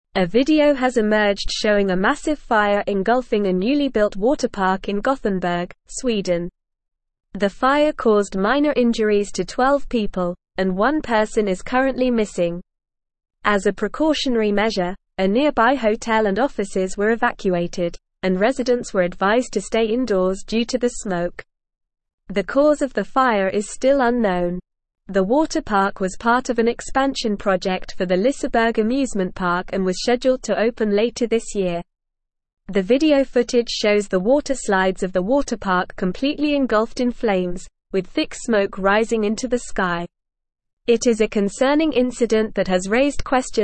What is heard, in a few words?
English Newsroom Advanced NORMAL Reading; Massive Fire Engulfs Newly Built Water Park in Sweden